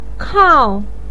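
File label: "kao" fourth tone, chinese, voice pinyin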